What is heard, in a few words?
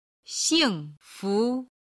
"xingfu", Chinese